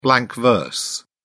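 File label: "blank verse", British English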